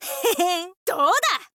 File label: thief boy win, voice